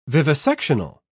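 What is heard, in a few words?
"vivisectional", British English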